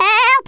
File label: "Run away", Wacky